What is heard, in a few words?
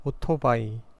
motorcycle, korean